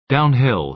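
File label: "downhill", American English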